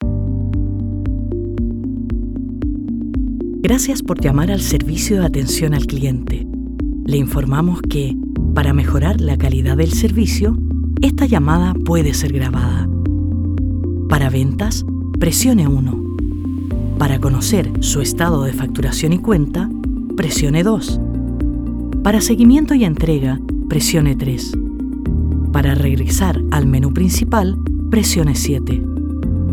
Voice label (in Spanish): IVR